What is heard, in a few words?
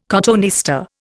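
"cotoneaster", Indian English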